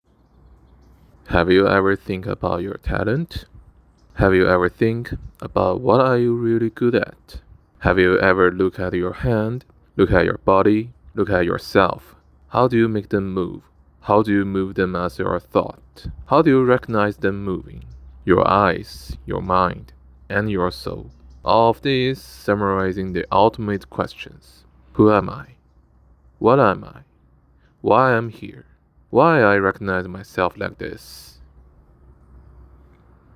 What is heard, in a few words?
Reading